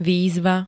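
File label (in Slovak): "výzva", Slovenské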